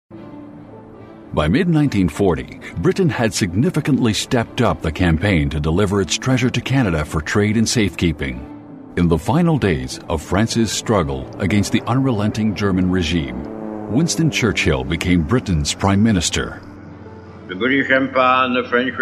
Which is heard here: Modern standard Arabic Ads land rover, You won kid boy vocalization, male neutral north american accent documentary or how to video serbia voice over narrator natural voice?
male neutral north american accent documentary